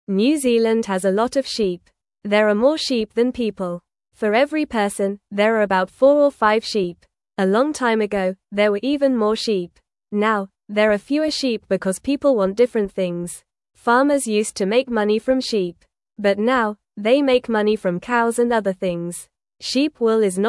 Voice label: English Beginner FAST Reading; English Newsroom; New Zealands Sheep Numbers Are Getting Smaller

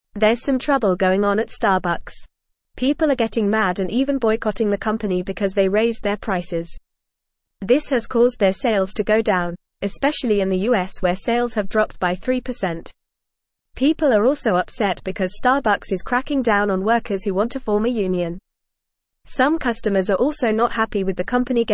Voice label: English Newsroom Upper Intermediate FAST Reading

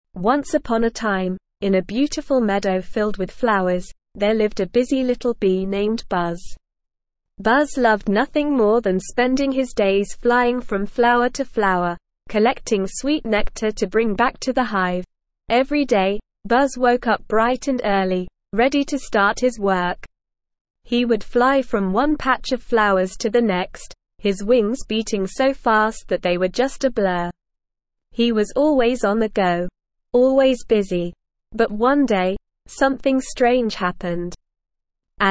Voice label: ESL Short Stories for Kids SLOW reading; The Busy Bee

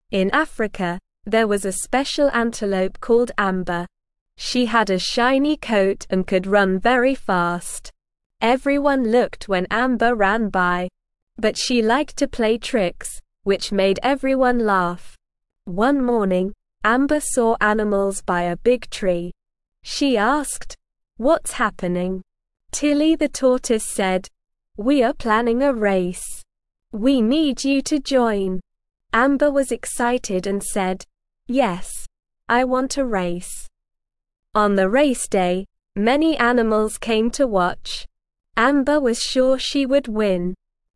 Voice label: ESL Short Stories for Kids Beginner SLOW Reading; The Amazing Amber Antelope

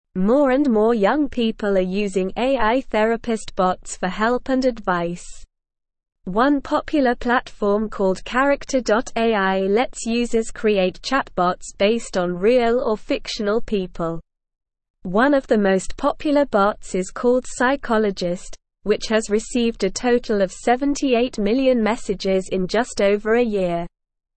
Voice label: English SLOW Reading; English Newsroom; Surge in Popularity of AI Therapist Bots

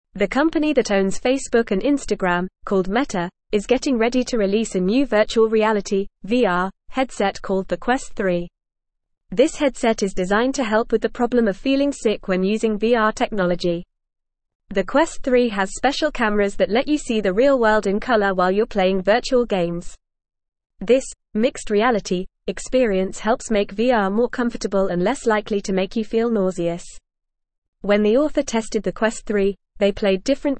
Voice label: English Upper Intermediate FAST Reading; Metas Quest 3 VR Headset Reduces Motion Sickness; English Newsroom

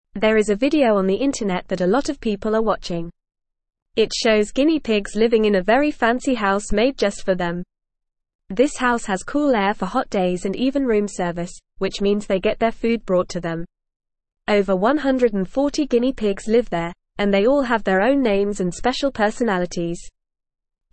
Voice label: English Beginner FAST Reading; Guinea Pigs Live in Fancy House with Room Service

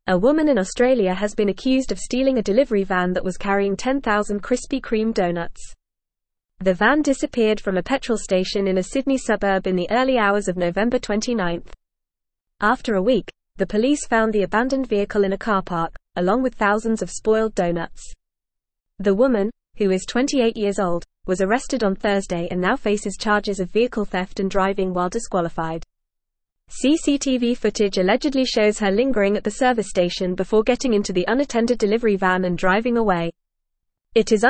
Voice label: English Newsroom Advanced FAST Reading; Woman Charged with Stealing Krispy Kreme Delivery Van

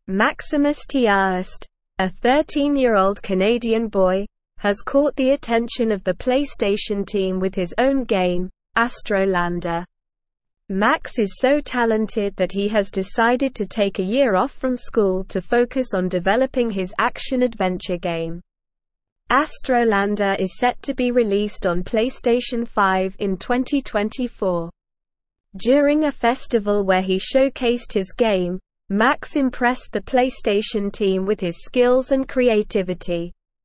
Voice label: English Newsroom Advanced SLOW Reading; 13 Year Old Canadian Boy Develops PlayStation 5 Game

